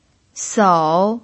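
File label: "sou", Mandarin Chinese pinyin, third tone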